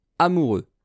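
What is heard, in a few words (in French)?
"amoureux", French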